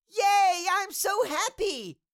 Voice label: Cartoon little child voice yay i am so happy

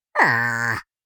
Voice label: Minion sadness voice

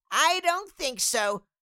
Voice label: Cartoon little child voice i dont think so

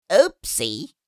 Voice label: Jolly elf oopsie; christmas

